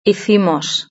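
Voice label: "hicimos", Spanish; verb-conjugator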